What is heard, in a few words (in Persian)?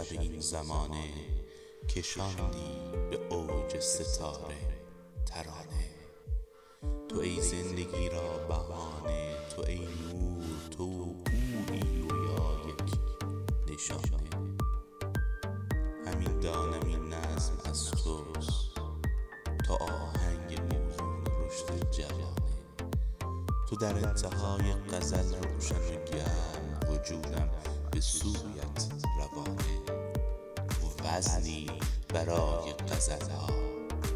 دکلمه عاشقانه